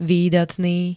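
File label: "výdatný", Slovak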